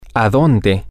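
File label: Spanish, to where, male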